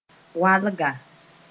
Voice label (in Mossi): "walga", Mooré